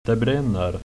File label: fire, swedish